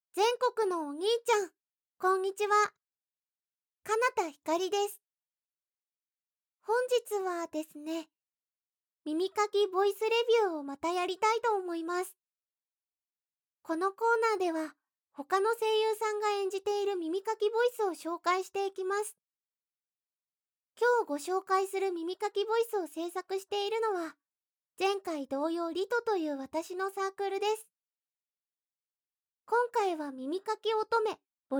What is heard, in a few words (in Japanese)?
耳かきボイス